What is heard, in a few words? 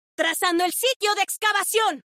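Latin American Spanish; 探奇（守望先锋2）; 终极技能; 拉美地区; 西班牙语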